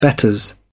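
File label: "betters", British English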